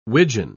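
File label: "wigeon", British English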